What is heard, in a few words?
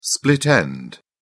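"split end", British English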